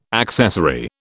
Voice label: "accessory", British